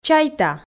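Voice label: "чайта", Komi, female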